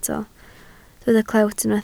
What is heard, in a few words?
"clewt", Welsh